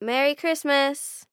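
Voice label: "Merry Christmas", female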